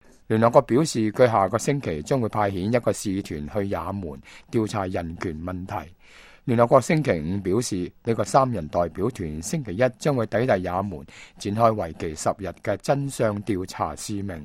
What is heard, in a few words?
cantonese, news